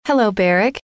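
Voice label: hello, female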